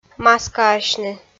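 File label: "маскаасьны", Komi, female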